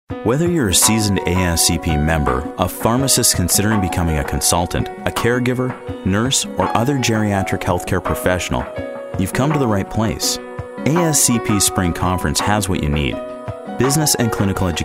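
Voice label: Corporate, voice over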